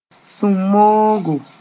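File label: "sũm-moogo", Mooré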